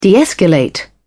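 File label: "de-escalate", en, British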